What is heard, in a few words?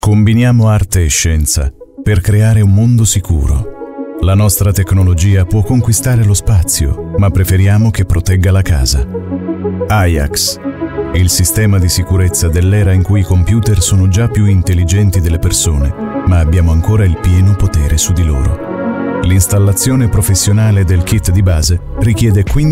italian voice over male commercial